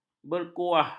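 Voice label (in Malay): "berkuah", Malay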